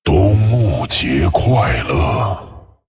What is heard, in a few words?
Male Dragon HAPPY HOLIDAYS, zh; 冬幕节; 冬幕节快乐！; 风暴巨龙迦拉克隆（英雄）; Winter Veil